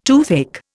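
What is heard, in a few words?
"toothbrush", Indian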